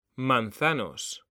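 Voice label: "manzanos", Spanish, male; mekanta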